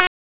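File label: Raleigh Police North District Tactical, p25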